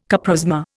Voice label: "coprosma", Indian